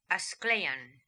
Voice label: "Asklaian", Beelzebub pronounciations; Fourth Way